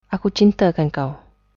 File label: i love you, malay